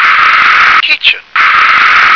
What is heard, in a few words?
"kitchen", English